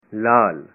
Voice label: red, punjabi